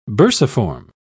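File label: "bursiform", British English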